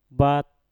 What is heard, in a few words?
"bat", Mpur; pukul